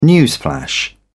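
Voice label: "newsflash", British English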